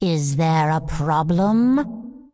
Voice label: Undead Female Magic NPC Pissed